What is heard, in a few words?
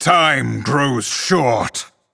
Male Night Elf Time